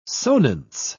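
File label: "sonance", British English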